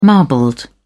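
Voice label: "marbled", English, British English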